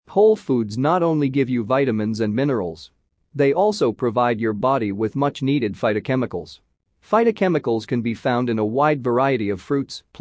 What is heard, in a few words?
Whole Foods Male Audio